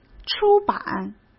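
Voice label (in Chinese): "出版", Mandarin Chinese; hsk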